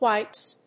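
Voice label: "wipes", English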